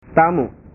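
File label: "tamu", Indonesian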